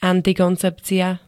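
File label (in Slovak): "antikoncepcia", Slovak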